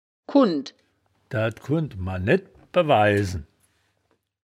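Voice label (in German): "kunndt", wittlicher woerterbuch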